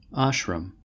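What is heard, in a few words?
"ashram" English, US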